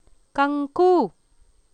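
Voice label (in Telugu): "కంకు", Telugu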